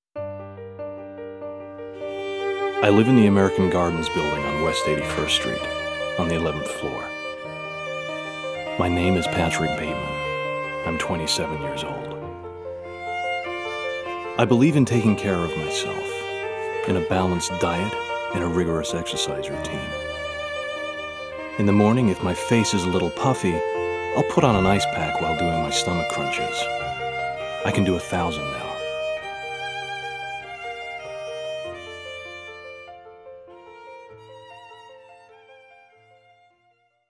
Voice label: Monologue; American Psycho (soundtrack)